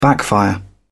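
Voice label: "backfire", British English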